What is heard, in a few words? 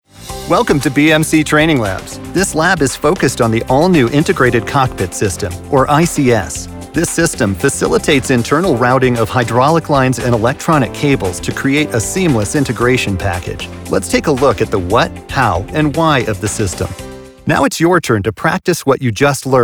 elearning